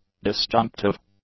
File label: "disjunctive", English; adjectives